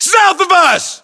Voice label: US direction relative south, battlechatter, english